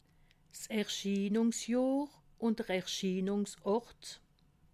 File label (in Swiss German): "erschienungsjohr", alsace